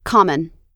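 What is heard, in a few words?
"common", American English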